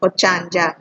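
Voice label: "ochanja", Nigerian Pidgin, female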